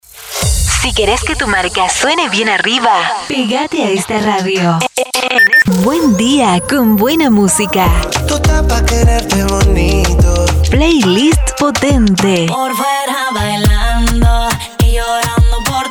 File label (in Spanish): Arte Fem Invierno; invierno 2025